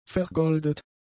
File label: "vergoldet", German; adjectives